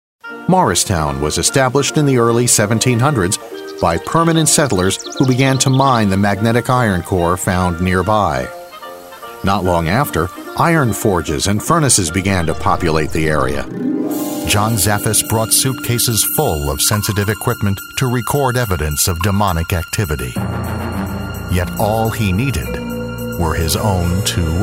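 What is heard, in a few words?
english american male voice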